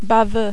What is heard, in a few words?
"baveux", French